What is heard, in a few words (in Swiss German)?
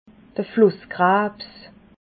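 "flusskrabs", Alsatian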